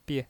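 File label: "bie", Mandarin Chinese, neutral tone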